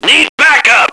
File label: backup, radio, male